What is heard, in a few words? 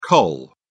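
"col.", British English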